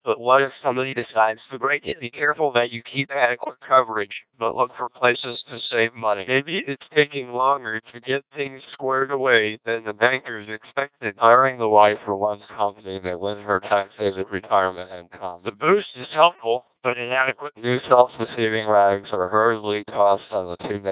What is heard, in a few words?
male